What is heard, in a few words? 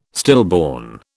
"stillborn", British